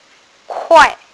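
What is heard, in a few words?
"kuai4", Mandarin Chinese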